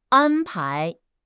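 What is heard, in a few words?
"an1 pai2", Mandarin Chinese; HSK4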